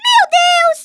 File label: oh dear, Speech Portuguese; Worms Armageddon